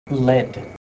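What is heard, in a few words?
"lead", English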